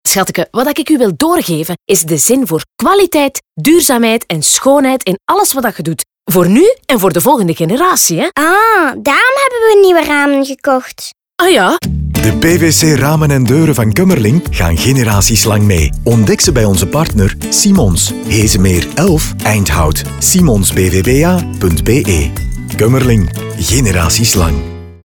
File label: radiospot